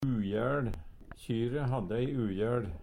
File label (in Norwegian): ujæL, Numedalsmål; Adjektiv